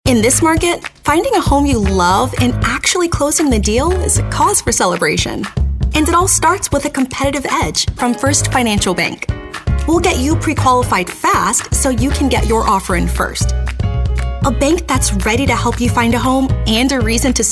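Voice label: Financial, female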